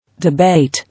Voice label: "Debate", English

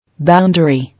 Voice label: "Boundary", English

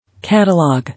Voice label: "Catalogue", English

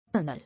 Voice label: "Annul", English

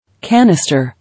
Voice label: "Canister", English

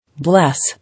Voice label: "Bless", English

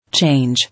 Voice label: "Change", English

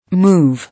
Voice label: "Move", English

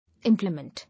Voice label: "Implement", English

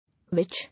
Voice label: "Which", English

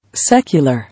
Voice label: "Secular", English

